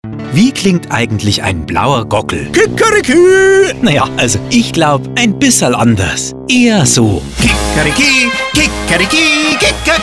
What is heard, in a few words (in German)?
Radiospot; Blauer Gockel